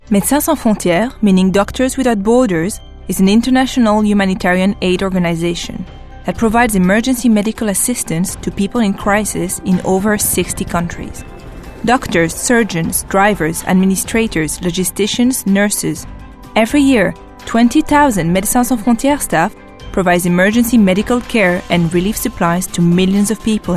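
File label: French English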